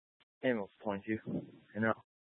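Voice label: Fire Dispatch, Scanner audio recording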